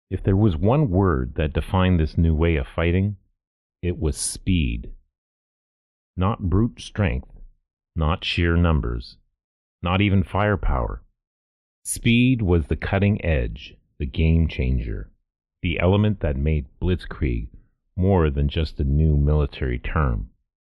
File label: Audiobook; Blitzkrieg